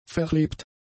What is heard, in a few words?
"verlebt", German; adjectives